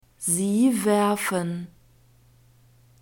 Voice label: "sie werfen", German; verbs present tense irregular

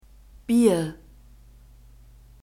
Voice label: "Bier", German

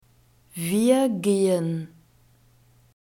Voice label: "wir gehen", German

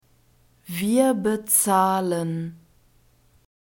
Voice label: "wir bezahlen", German

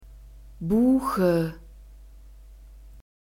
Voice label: "Buche", German; nouns gender feminine

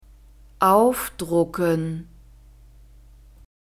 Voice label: "aufdrucken", German

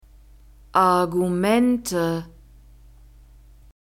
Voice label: "Argumente", German

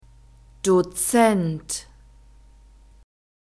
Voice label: "Dozent", German; masculine

